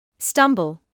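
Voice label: "stumble", British English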